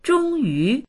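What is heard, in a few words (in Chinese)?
"zhong yu" Chinese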